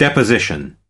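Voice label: "deposition", US English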